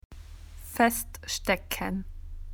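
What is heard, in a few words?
"felt tip pen", Australian English; PictureDictionary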